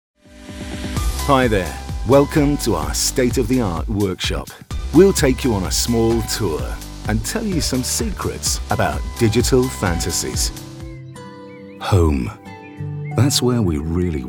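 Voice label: narration, Welsh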